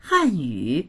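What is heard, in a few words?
"han4yu3", Mandarin Chinese; 汉语; 中国語; dictionary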